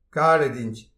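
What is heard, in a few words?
"kalet int", Breton; petit precis de prononciation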